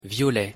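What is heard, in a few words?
"violet", French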